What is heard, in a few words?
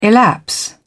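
"elapse", British English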